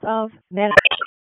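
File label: Police Spec Ops Tac, radio; Raleigh; Spec Ops Tac 4; wake; p25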